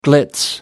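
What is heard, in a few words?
"glitz", British English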